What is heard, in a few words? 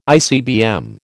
"ICBM", American